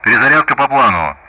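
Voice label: Speech Russian; DCS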